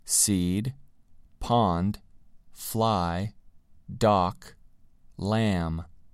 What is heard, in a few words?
"seed" "pond" "fly" "dock" "lamb"